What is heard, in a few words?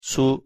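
"su", Spanish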